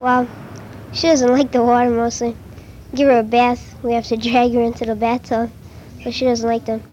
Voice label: Conversation with 13 year old white male Detroit Michigan; american english dialect